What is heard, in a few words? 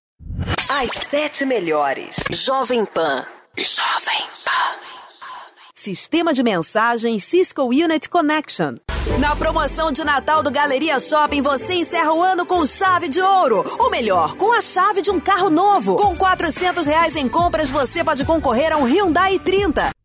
portuguese brazilian commercial